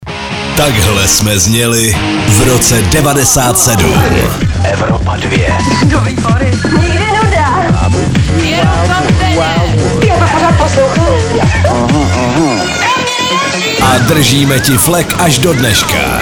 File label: liner; evropa2; narozky e2